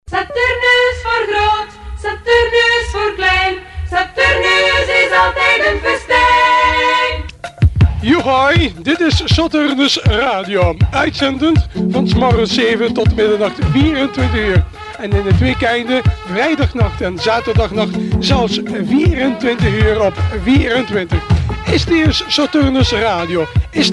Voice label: Jingle; RS Saturnus